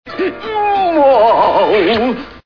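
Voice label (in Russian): жалоба мультяшная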